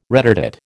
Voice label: "retardate", American

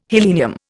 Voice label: "helenium", Indian

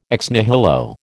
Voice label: "ex nihilo", American

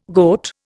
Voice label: "goat", Indian

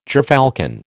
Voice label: "gerfalcon", American

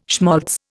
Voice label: "schmaltz", Indian

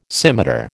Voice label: "scimitar", American

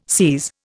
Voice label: "SIS", Indian

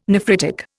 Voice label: "nephritic", Indian

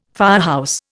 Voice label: "firehouse", Indian

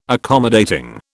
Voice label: "accommodating", British

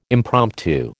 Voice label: "impromptu", American

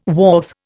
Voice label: "wharf", Indian

